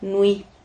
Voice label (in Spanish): "nui", Silabas